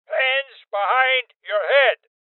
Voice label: Order To Surrender Male Old

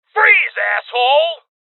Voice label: Voices Freeze Male; gamerip; Rebel Cops

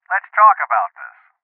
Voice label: Voices Postpone Male

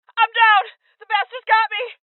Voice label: Voices Injury Female

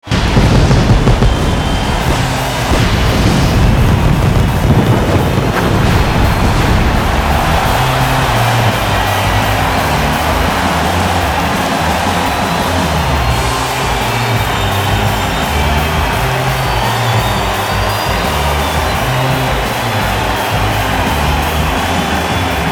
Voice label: english us; WWE 2K15; PlayStation 3

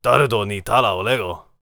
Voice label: warrior conversation emotion neutral

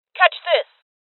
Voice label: Voices Throw Clip Female; rebel cops 2019 gamerip

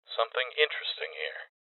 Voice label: Loot Spotted Male; rebel cops 2019 gamerip; Voices

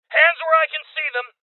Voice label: Voices Order To Surrender Male

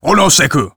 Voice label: emotion angry; Warrior 2

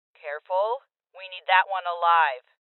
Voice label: Female; Boss Spotted; Rebel Cops

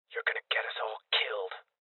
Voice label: Voices Guardian Male Young

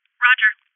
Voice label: Confirmation Female; Voices

